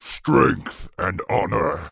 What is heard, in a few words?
Orc Male Guard NPC Greeting